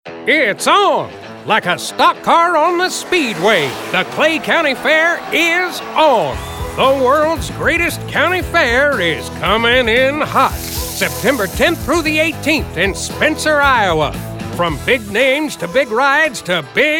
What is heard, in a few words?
radio; StockCar